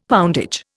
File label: "poundage", Indian; Dictionary